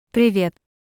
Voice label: Russian Hello informal; Greetings

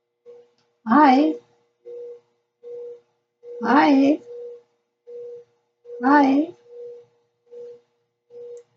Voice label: Afrikaans Hi informal; Greetings

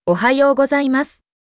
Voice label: Japanese Good morning; Greetings

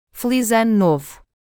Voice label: Portuguese Happy new year; Greetings

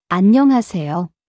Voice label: Korean Hello formal; Greetings

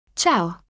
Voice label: Italian Hello informal; Greetings

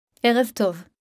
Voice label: Hebrew Good evening; Greetings

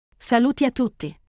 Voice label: Italian Greetings everyone

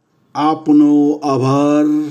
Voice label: Gujarati Thank you; Greetings

